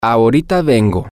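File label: ill be right back, Spanish, male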